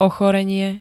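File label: "ochorenie", Slovak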